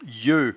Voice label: "yeut", patois jura

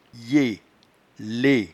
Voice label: "yetlet", patois jura

